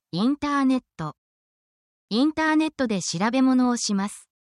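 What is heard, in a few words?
Japanese word, essential Japanese phrases; for your workplace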